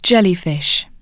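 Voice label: "jellyfish", British English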